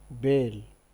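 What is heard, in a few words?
"bale", Nigerian Pidgin, male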